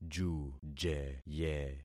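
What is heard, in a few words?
"jujeye", concatenated syllables; Affixing experiment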